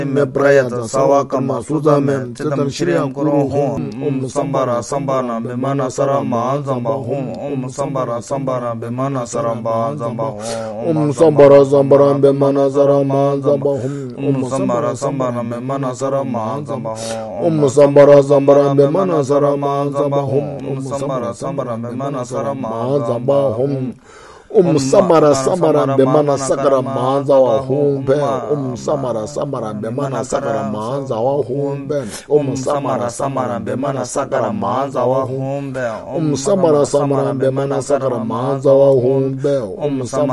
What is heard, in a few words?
Increasing Mantras